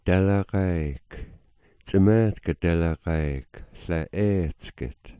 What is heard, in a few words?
"dalagaayk", smalgyax